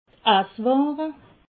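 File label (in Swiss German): "essware", Alsatian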